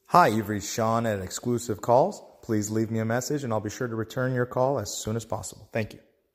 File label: ivr greeting